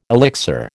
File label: "elixir", American